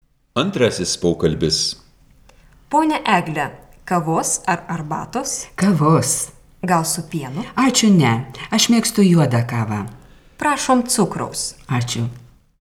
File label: Dialog